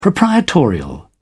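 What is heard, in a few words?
"proprietorial", British English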